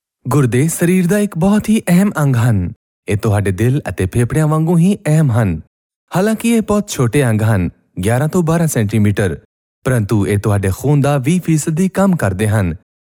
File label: Punjabi VO sample